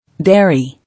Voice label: "Dairy", English

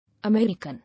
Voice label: "American", English

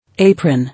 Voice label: "Apron", English

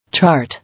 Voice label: "Chart", English

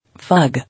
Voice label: "Fug", English

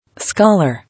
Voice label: "Scholar", English

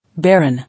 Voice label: "Barren", English

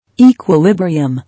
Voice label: "Equilibrium", English; pl. –s or ria

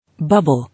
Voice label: "Bubble", English

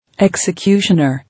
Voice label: "Executioner", English

